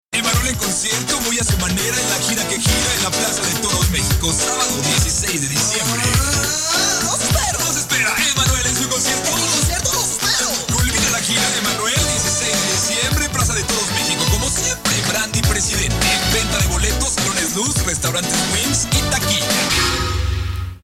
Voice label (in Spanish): Comercial; 1989; Voz del negro